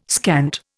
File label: "scant", Indian